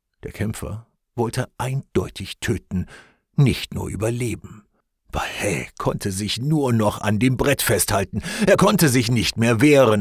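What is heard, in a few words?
Audiobook, male; Thunder Rumbles Twice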